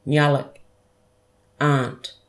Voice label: "ngaluk" aunt